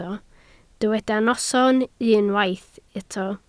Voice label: "noson", Welsh